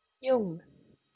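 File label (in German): "jung", German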